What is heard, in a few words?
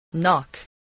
"knock", English